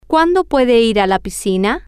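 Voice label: when can you go to the pool, Spanish, female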